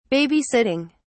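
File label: "babysitting", British English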